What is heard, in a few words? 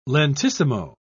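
"lentissimo", British English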